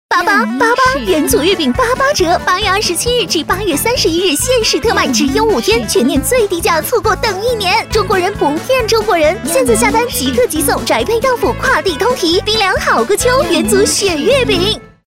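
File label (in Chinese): 女大音-广告; 中秋节; 元祖雪月饼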